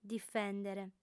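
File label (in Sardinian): "difèndere", female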